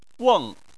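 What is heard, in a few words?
"weng", Chinese, fourth tone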